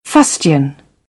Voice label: "fustian", British; English